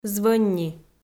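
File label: "звӧнни", Komi, female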